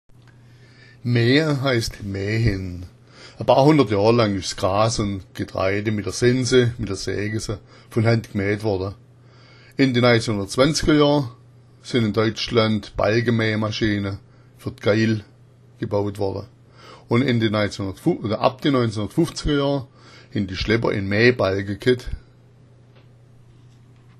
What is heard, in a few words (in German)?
"maea", schwaebisch; mää’a ist mähen.